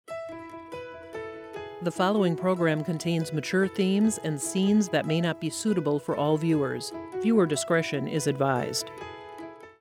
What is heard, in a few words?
ANNCR Mature Scenes female, mature themes and scenes; PBS WI; Promotions; Rebrands